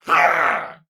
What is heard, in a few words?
Attack, Corpus Venus Male